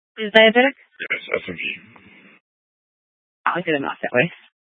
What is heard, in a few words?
Police Dispatch